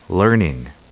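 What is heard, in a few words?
"learning", US English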